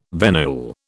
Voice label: "venule", British